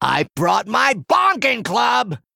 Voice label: Male Goblin Play

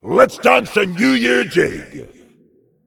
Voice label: Demon Emote Happy New Year; Patches

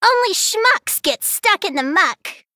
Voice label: Female Gnome Play

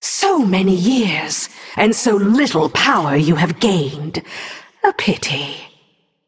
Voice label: Female Naga Idle; Handmaiden; Faelin Mission15

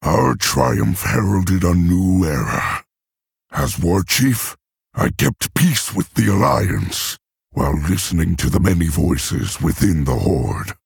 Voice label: Male Orc; Thrall

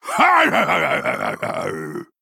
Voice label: Male Gnoll

